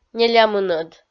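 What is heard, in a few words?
"нелямынӧд", Komi, female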